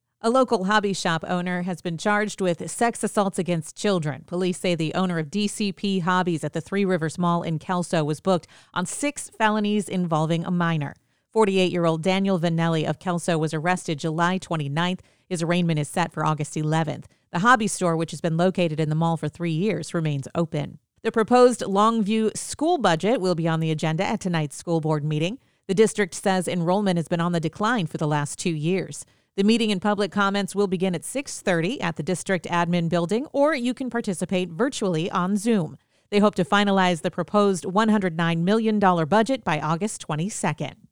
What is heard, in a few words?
NEWS